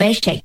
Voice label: bass check, Voice

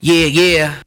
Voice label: "yeah yeah", voice

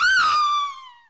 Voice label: "ow" nongender

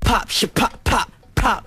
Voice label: "pop shh pop pop pop", voice; ooh ahh

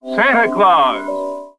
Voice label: santa claus announcer; Voice; xmas

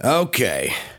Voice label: "okay", expletives

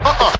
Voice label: "uh uh" male, Voice; ooh ahh; uh um

